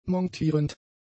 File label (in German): "montierend", German; adjectives